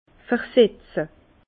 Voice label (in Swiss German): "versetze", Bas Rhin; vin; provigner